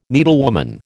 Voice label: "needlewoman", American